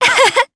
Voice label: Happy, jp; Yanne; kingsraid

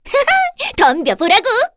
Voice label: Vox Skill, kr; Cecilia; kingsraid

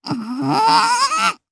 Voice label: Casting, jp; Rehartna; kingsraid audio

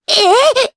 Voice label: Vox Deny, jp; voices heroes jp; Cleo; kingsraid

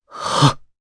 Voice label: Vox Casting jp; Pavel; kings raid

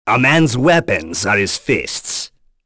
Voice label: Vox Victory, en; Baudouin; kings raid; voices heroes en

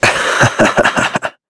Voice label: Vox Happy; Shakmeh; kingsraid

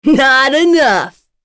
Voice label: Vox Victory, en; Morrah; kingsraid; voices heroes en

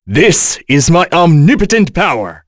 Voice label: Vox Skill, en; Kain; kingsraid; voices heroes en

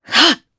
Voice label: Casting, jp; Naila; kingsraid

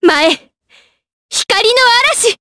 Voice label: Vox Skill, Jp; Talisha; kings raid

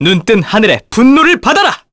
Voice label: Vox Skill, kr; Arch; kingsraid

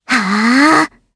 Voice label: Vox Casting, jp; kingsraid; voices heroes jp

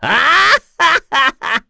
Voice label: Vox Happy kr; Bernheim; kingsraid

